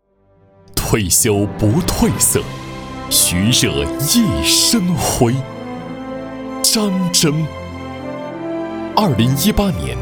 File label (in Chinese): 男 宣传人物